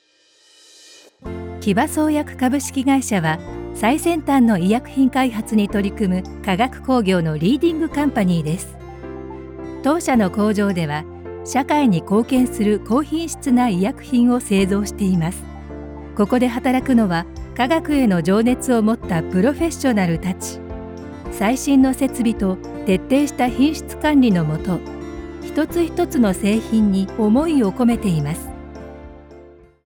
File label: narration woman